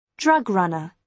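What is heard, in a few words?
"drug runner", British English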